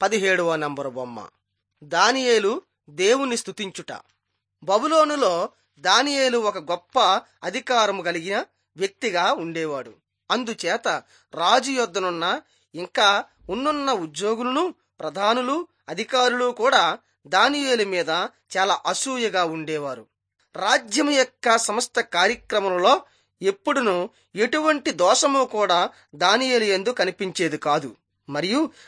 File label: telugu bangladesh; on trial for god